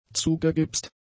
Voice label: "zugegipst", German; adjectives